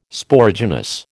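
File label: "Sporogenous", American English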